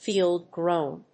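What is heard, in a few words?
"field grown", English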